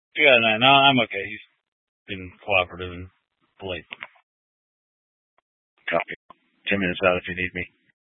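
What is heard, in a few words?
Troop 2A Dispatch, scanner audio recording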